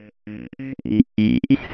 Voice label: 137 speak spell; MELODIC LOOPS; VOCAL OR VOXY LOOPS; noizum

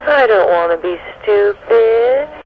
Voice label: dont wanna be stupid, FEMALE MISC and SPOKEN WORD

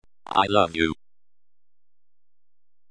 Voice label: robot i love you

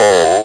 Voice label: speak and spell "O"; ALPHABET

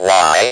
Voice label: "Y" spoken, Speak and Spell; ALPHABET

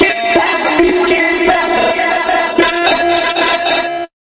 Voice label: robot, Robotic or Stuttery or Spooky

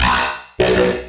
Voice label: robot hot baby

